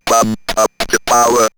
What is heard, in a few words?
Robot Pump Up D Power, robot vox; X-Static Goldmine